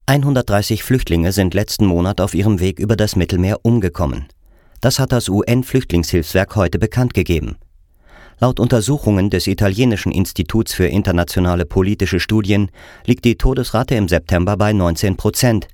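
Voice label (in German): commentary, Neutral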